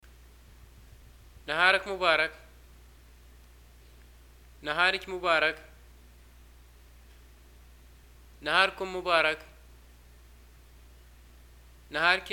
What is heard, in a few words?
greeting morning, arabic